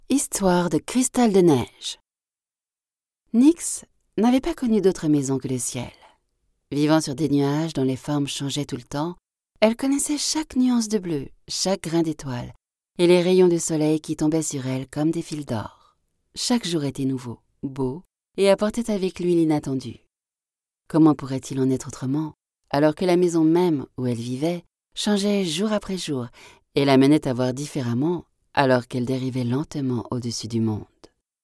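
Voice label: French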